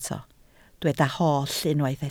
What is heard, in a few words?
"holl", Welsh